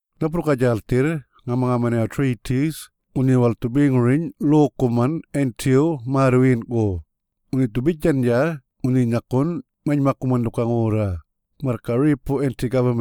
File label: Yolngu Matha